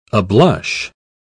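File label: "ablush", British English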